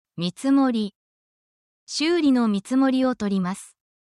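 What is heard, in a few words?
Japanese word, essential Japanese phrases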